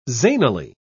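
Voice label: "zanily", British English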